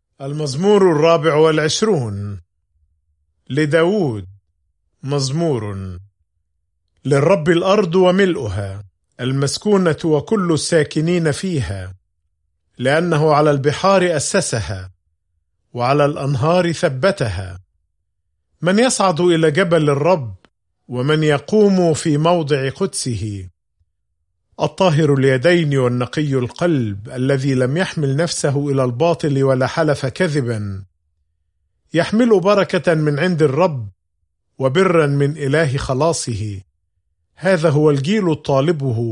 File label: Psalm, arabic bible; psalms